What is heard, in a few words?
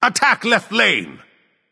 vox attack; smite; ra; voicepack